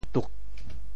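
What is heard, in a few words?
"tuk4", Teochew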